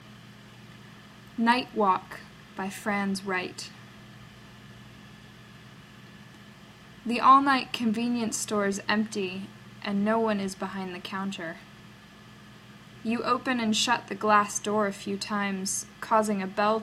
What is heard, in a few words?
Poem